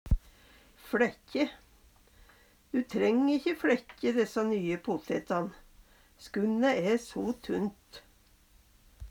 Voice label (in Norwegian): "fLækkje", Numedalsmål; Du træng ikkje fLækkje dessa nypotetan (potetran), skunne æ so tunt.